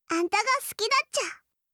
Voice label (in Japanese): 好きです 富山; imas; 伊織